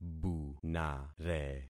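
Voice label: "bunare", concatenated syllables; Affixing experiment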